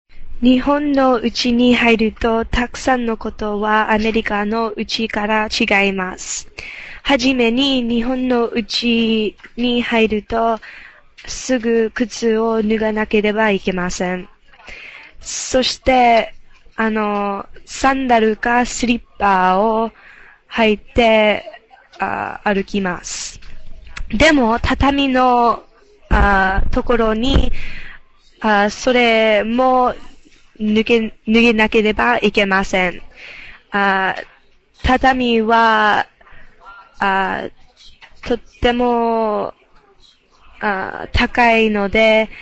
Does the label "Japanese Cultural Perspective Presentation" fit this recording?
Yes